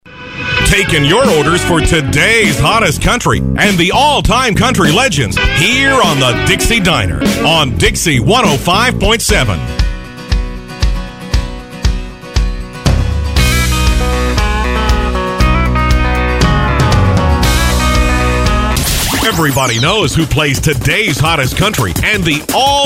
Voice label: Liners; WRSF